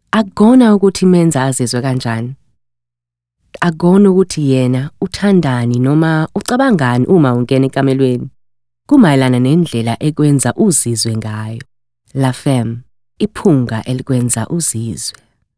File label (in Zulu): Zulu, Soft sell; Msgs